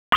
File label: PS TAC, p25; wake